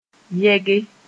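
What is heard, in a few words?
"yɛge", Mossi; to go along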